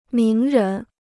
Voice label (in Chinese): "名人", Chinese